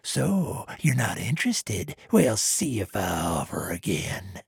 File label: trader male neutral quest declined